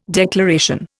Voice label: "declaration", Indian

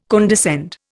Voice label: "condescend", Indian

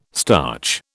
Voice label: "starch", British

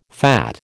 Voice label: "fat", American

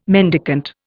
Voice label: "mendicant", Indian

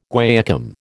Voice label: "guaiacum", American

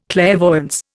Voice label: "clairvoyance", Indian

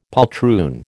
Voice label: "poltroon", American

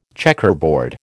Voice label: "checkerboard", American

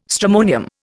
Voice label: "stramonium", Indian

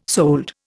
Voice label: "sold", Indian

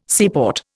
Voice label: "seaport", Indian

